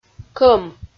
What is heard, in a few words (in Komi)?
"кӧм" Komi